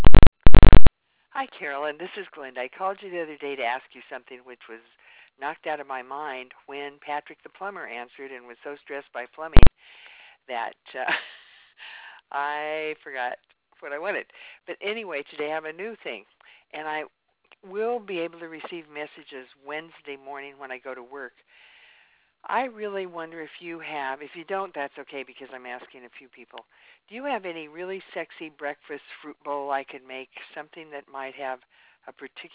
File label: voice mail